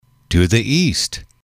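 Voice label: to the east, male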